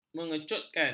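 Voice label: "mengecutkan", Malay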